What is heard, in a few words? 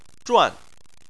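"zhuan" Chinese, fourth tone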